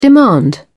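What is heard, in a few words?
"demand", British English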